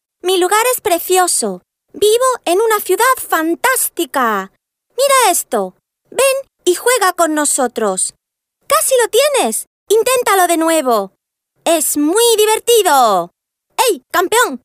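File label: Spanish female children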